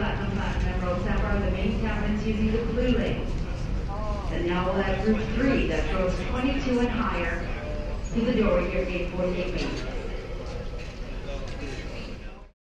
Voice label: boarding accouncement